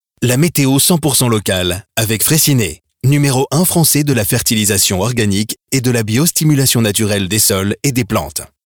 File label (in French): RADIO; FRAYSSINET; RADIO FRANCE BLEU